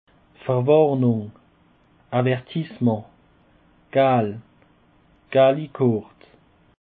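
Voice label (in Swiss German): "verwarnung", Alsatian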